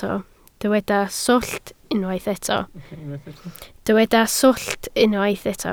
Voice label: "swllt", Welsh